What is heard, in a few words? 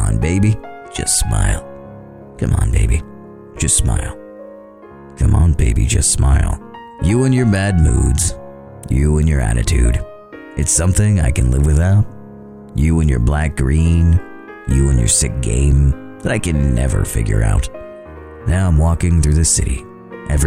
a dramatic reading